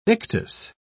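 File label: "ictus", British English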